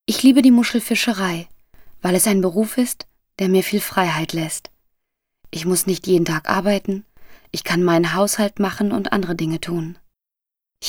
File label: female german narrative comercial; ideasonora